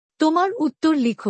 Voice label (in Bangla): Write your answer bangla; Time and Routine